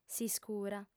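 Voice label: "s'iscura", female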